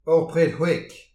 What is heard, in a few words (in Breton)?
"ur pred chwek", distagadur; petit precis de prononciation